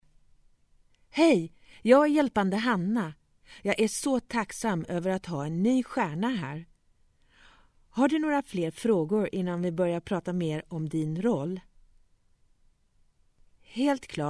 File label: female swedish voice over character